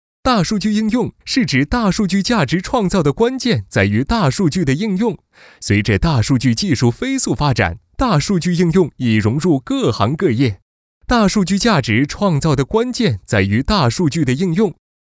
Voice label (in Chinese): 宣传片 病毒 大数据 mg, 男